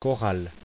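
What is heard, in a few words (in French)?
"choral" French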